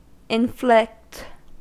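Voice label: "inflict", US English; pronunciations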